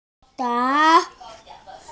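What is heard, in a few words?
"yang2tai2", Mandarin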